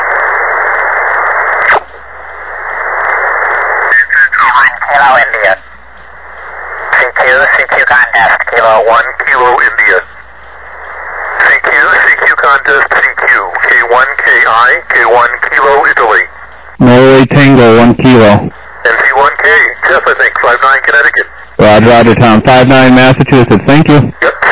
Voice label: USB 28MHz; ARRL10M

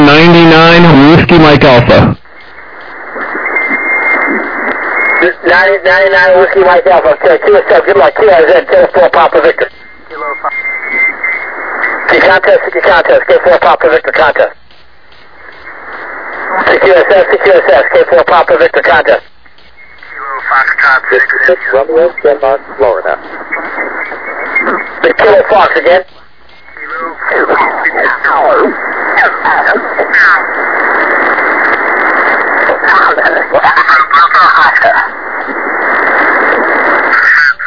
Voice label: USB 21MHz; SSB

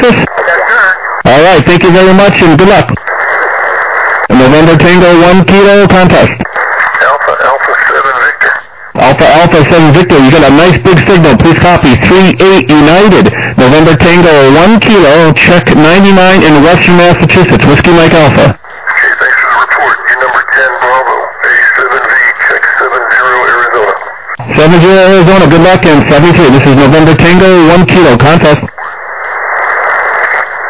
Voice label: SSB USB 21MHz

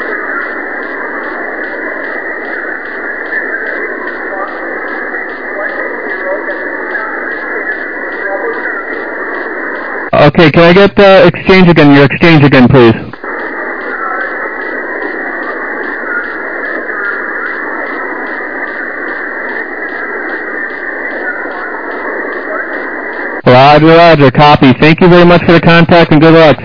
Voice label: SSB USB 21MHz

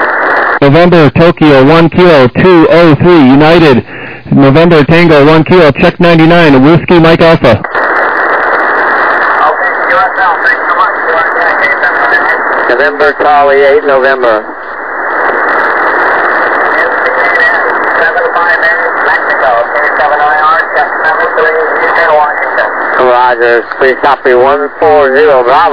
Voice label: USB 14MHz

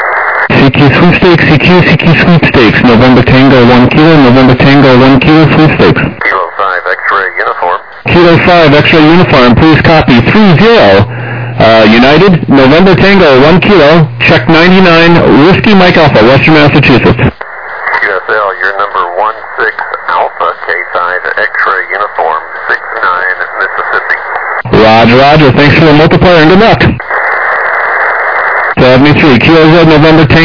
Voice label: USB 21MHz

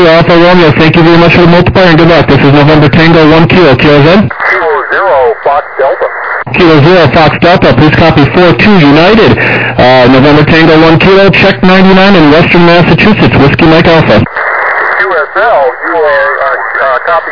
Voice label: SSB USB 21MHz

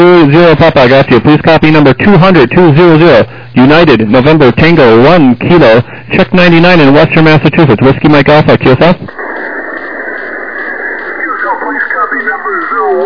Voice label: SSB USB 21MHz

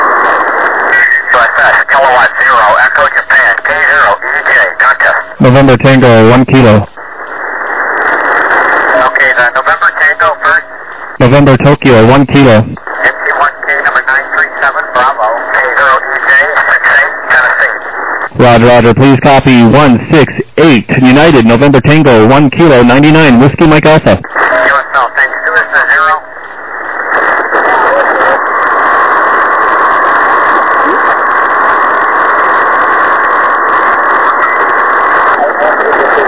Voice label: SSB USB 14MHz

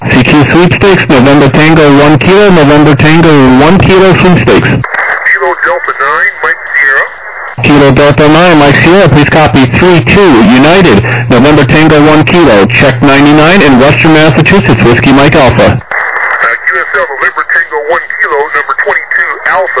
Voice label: USB 21MHz

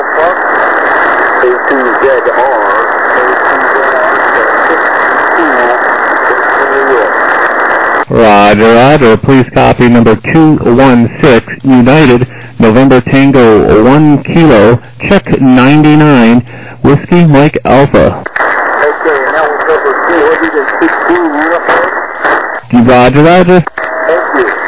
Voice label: SSB LSB 7MHz

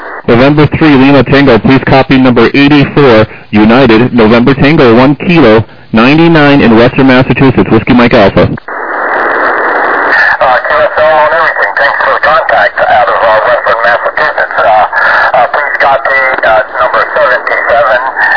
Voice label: LSB 7MHz

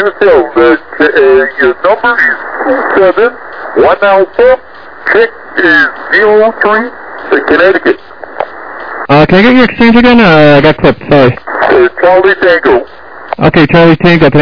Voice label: LSB 7MHz

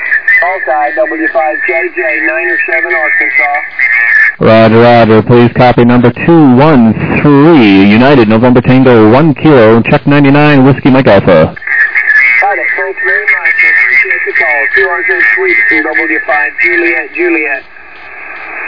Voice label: SSB USB 21MHz

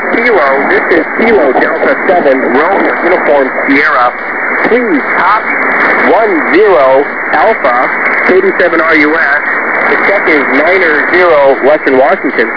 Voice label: USB 21MHz

